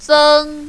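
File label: "zeng" first tone, mandarin, female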